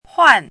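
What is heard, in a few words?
"huàn", Mandarin Chinese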